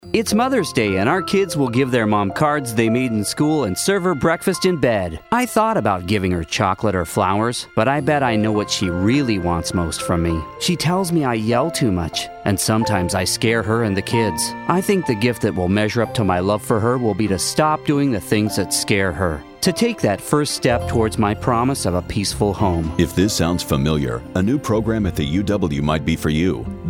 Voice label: Radio Ad